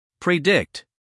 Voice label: "predict", US male